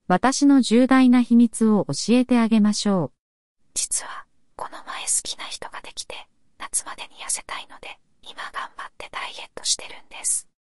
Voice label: Whisperd